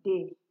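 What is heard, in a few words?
"dey", Nigerian Pidgin, female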